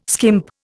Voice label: "skimp", Indian

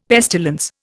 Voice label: "pestilence", Indian; Dictionary

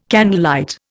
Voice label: "candlelight", Indian

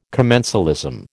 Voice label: "commensalism", American

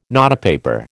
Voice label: "notepaper", American

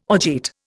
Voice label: "orgeat", Indian

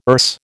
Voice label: "Erse", American

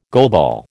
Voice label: "goalball", American

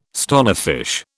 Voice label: "stonefish", British

